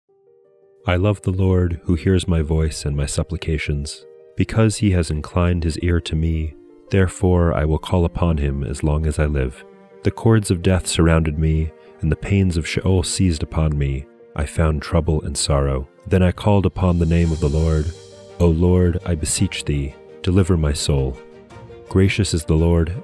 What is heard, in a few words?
poetry and prayer psalm english